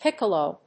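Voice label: "piccolo", English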